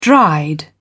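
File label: "dried", British English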